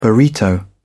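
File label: "burrito", British English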